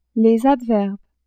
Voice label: "adverbes", French; adverbs